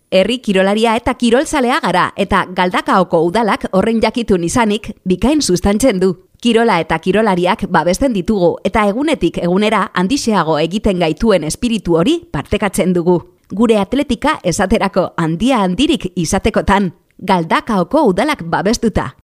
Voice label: locutora euskera basque voiceover; locutora vasca

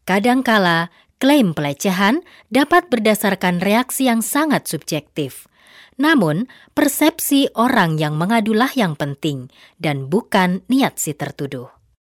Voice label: locutora indonesia indonesian bahasa voiceover; locutora nativa indonesia

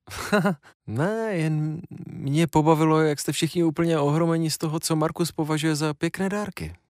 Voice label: czech voiceover locutor checo; Locutores checos de doblaje de series

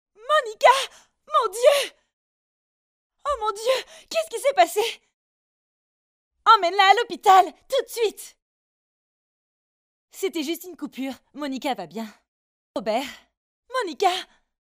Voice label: locutora francesa french voiceover

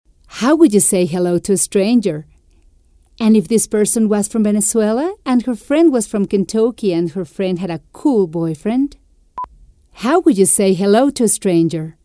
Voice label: english mexican voiceover

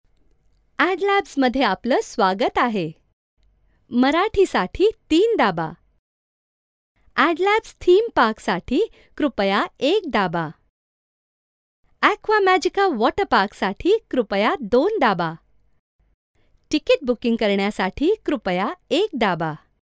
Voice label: marathi voiceover locutora india

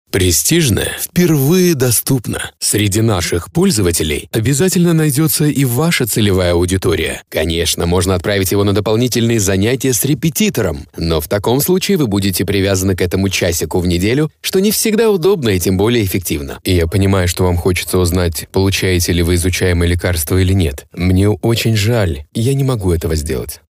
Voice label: russian male voiceover locutor ruso

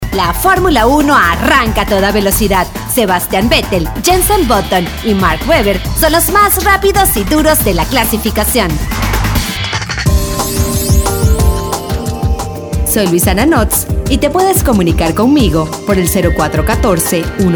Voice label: locutora venezuela venezuelan voiceover; locutora venezolana

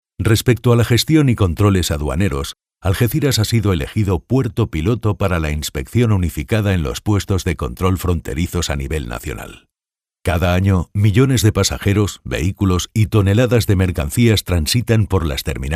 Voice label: locutor Spanish voiceover

